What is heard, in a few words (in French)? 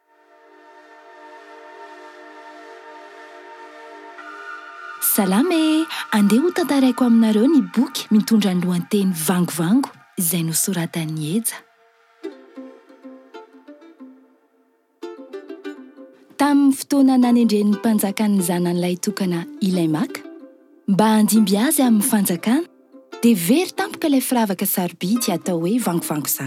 Voix off version Malagasy; Madagascar; vangovango conte; océan Indien